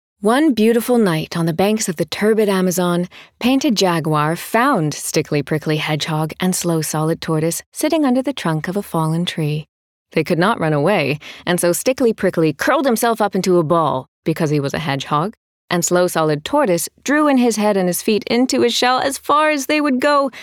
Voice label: Audiobook; The Beginning of the Armadillos